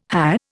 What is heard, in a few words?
"hah", Indian